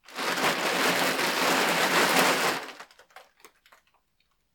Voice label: rapping